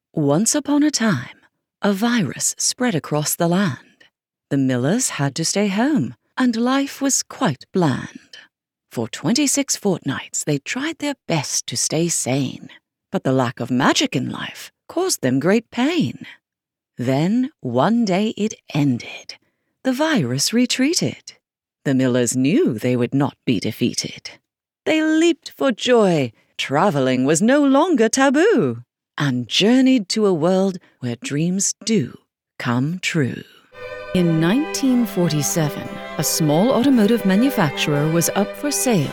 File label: UK British Storyteller